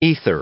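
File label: "ether", English; noun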